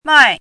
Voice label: "mài", hanzi